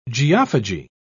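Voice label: "geophagy", British English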